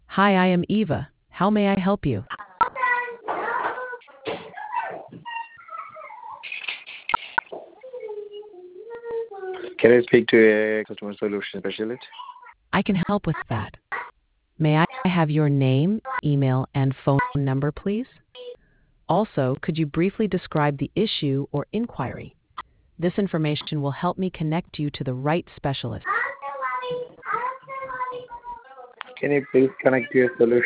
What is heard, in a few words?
conversation